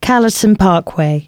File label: announcements, female